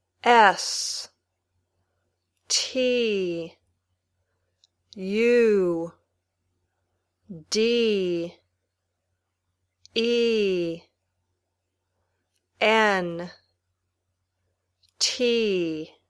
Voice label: Spelling, Basic English; Spell (by saying the letters in English) some words from the 1000 most common words list.